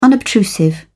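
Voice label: "unobtrusive", British English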